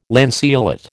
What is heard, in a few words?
"lanceolate", American